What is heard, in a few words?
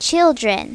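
"children", English; My Talking Dictionary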